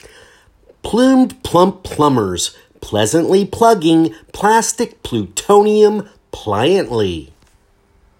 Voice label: PL Twister